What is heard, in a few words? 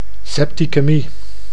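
"septikemie", Dutch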